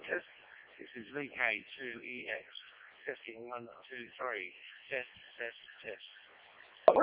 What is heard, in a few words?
decoded speech, parrot